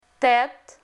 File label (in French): "tête", francais, Voyelles; Phonetique; Lingvo